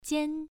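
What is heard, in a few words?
"jian", Mandarin Chinese, first tone, pronunciation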